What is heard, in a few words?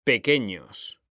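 "pequeños", Spanish; mekanta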